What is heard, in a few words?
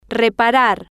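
"reparar", Spanish, female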